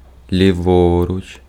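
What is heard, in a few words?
Ukrainian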